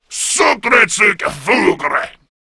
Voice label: Cover Me, Male; Warframe; Grineer Male B; Battle Barks